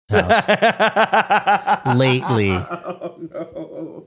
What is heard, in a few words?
laughing saying oh no